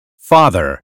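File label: "father", American phonetics; 英语音标发音（剑桥版）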